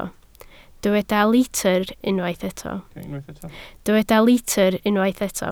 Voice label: "litr", Welsh